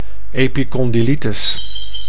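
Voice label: "epicondylitis", Dutch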